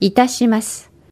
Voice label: "itashimasu", Japanese

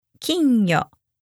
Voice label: "kingyo", japanese

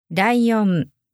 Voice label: "raiyon", japanese

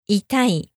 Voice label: "itai", japanese

